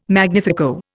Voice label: "magnifico", Indian

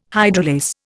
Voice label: "hydrolase", Indian

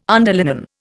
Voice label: "underlinen", Indian

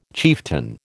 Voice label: "chieftain", American

